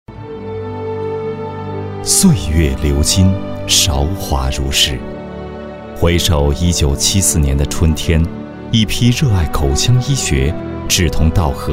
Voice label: 男, 专题; 南京医大40年

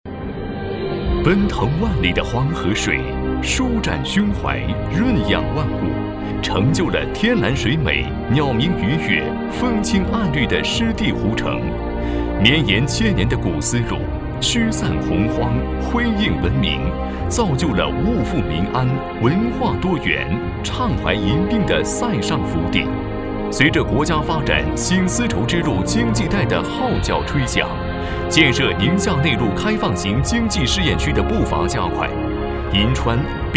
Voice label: 男 专题; 宁夏内陆开放试验区

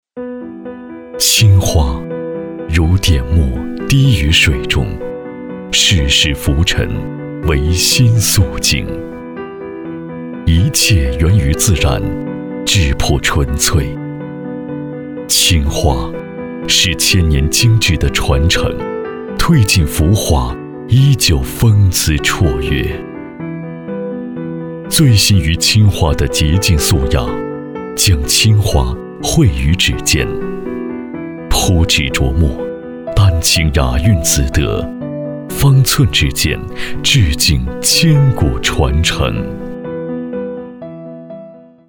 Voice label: 男 广告

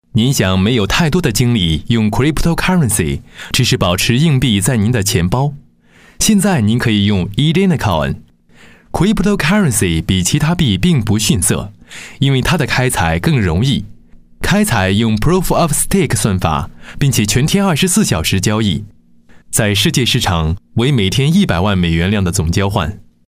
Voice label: 男 专题 讲述介绍

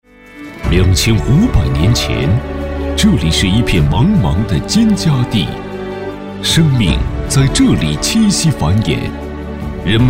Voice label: 男 专题 文化; 顺洋

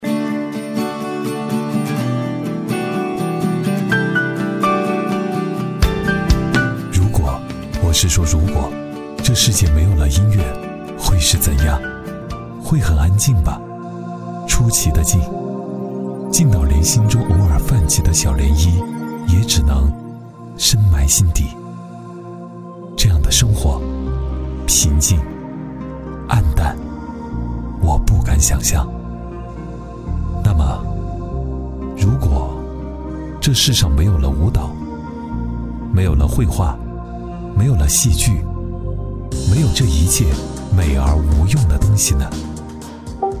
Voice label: 男 片花; 出彩秀决赛

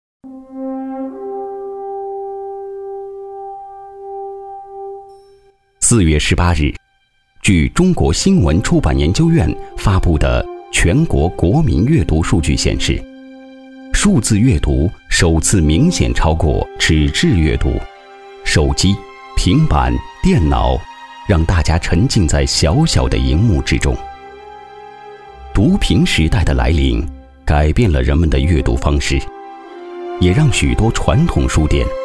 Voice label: 男 专题 数字阅读

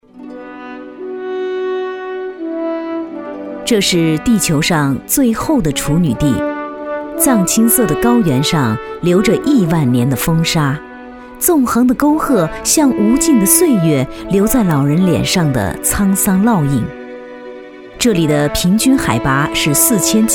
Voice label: 女 纪录片; 可可西里

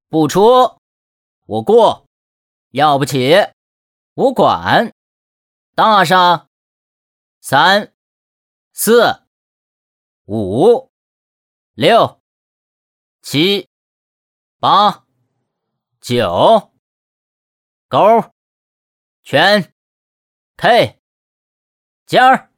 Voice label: 男, 棋牌, 斗地主

